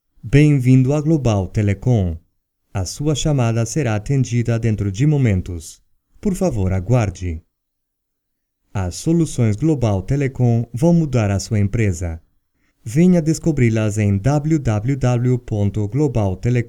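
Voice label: IVR Portuguese Brazil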